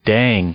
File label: "dang", English; interjection